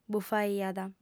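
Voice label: "bufaiat", female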